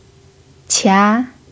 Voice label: "qia2", Chinese